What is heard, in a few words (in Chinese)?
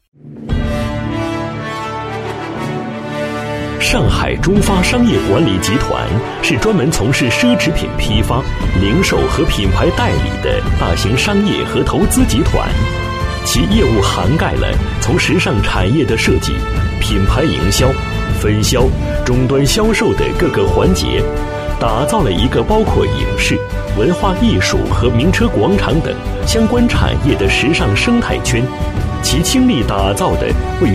男国 专题 企业 稳重; 上海商业管理集团